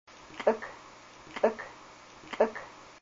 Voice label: whetstone, Haida language